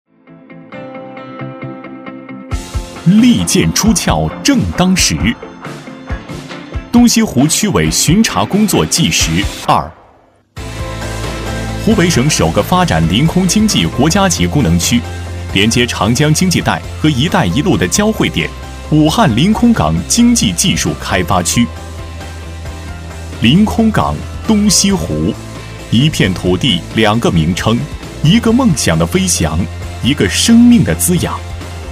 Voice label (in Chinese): 男 宣传片 大气 军用车辆维修